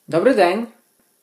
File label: dialogue, Ukrainian